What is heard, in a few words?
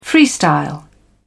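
"freestyle", British English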